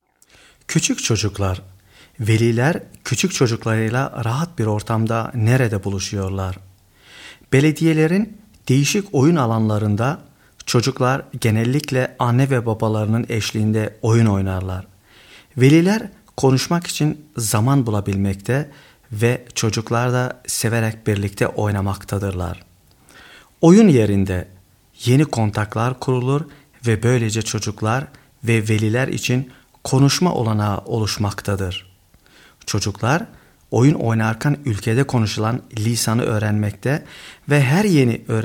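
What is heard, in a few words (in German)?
kleinkinder türkisch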